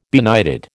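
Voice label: "benighted", American English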